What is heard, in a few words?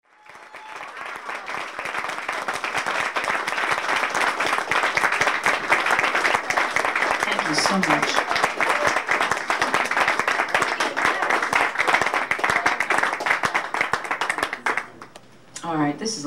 Live Patter; Tin Angel